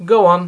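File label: "pokracujte", Czech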